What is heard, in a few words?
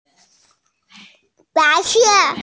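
"white", female; bai2se4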